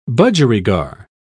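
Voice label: "budgerigar", English, British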